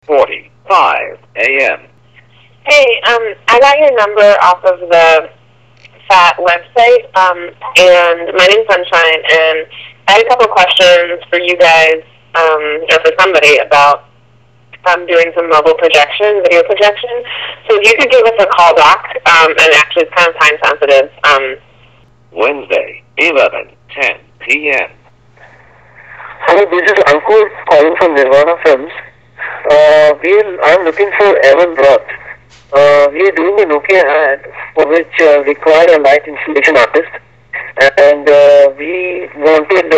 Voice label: voice mail